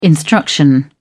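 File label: "instruction", British English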